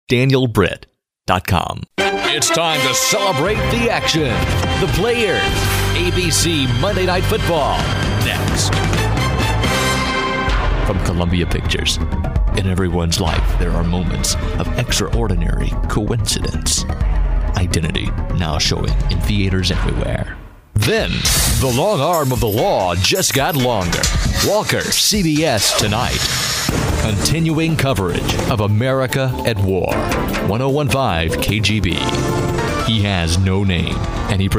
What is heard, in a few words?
TV-Movie Trailer-News-Topical